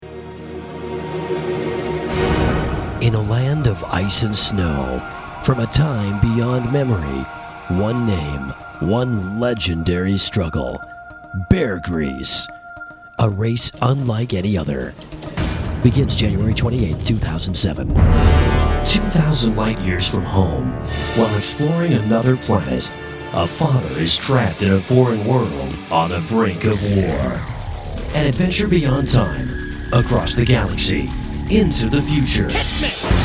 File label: trailers